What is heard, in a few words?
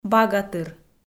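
"багатыр", Komi, female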